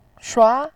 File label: "shua2", voice